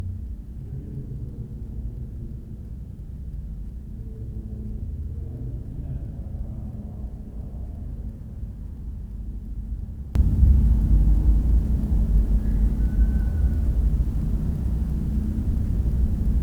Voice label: talking in backround then unknown whistle possibly; Eloise Haunted Tour; EVPS